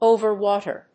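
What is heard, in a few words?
"over water", English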